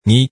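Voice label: "ngi", Hakka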